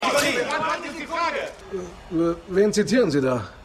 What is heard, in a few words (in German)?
Sportreporter; Winning Time